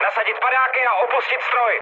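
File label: bailout, Czech, mood med; speech; War Thunder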